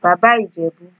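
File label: "baba ijebu", Nigerian Pidgin, female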